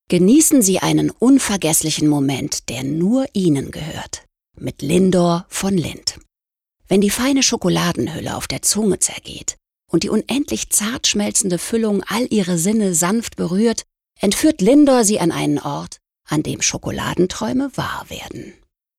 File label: Commercial; Lindt